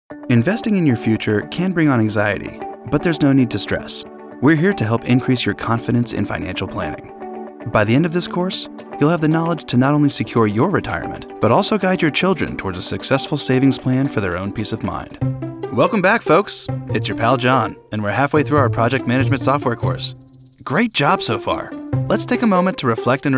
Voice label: Narration